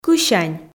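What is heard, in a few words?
"кысянь", Komi, female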